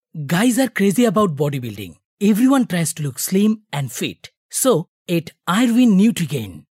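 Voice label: Bengali, male, English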